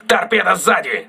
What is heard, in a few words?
torpedo rear, crew ships russian